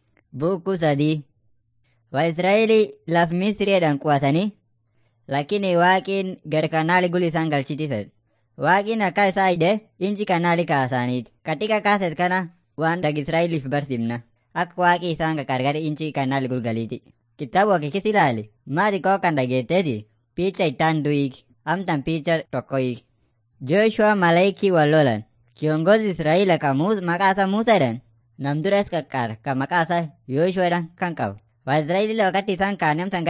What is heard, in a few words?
afan munyoyaya